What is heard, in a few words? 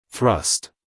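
"thrust", American English, male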